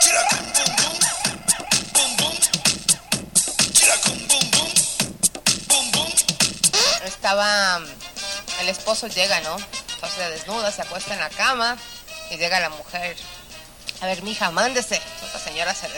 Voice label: radio